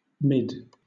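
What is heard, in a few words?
"mid", British English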